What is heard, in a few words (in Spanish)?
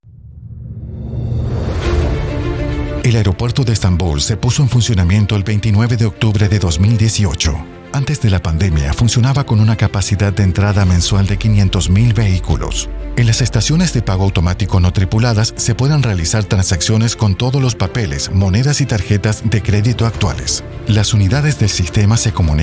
Locutor; Aereopuerto Estabum